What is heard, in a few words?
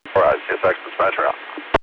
Dispatcher Out; CSX